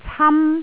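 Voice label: "cam1", Cantonese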